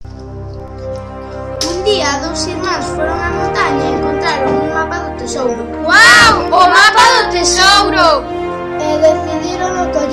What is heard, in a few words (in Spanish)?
publicidad